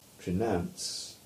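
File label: "Trenance", English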